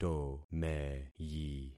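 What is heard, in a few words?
"tomeyi", concatenated syllables; Affixing experiment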